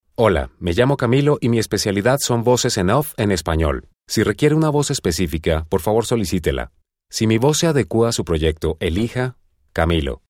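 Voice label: Spanish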